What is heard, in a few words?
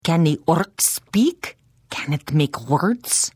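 Hello, Khajiit female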